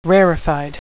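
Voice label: "rarefied", English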